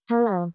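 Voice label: Voice Acting es, female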